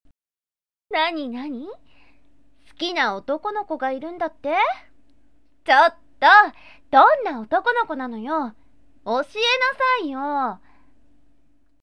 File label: sample girl